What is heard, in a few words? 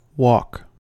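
"wok", American English; pronunciations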